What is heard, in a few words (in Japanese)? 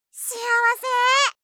「幸せ」, 相づち・反応系【ポジティブ】